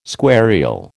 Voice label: "squarial", American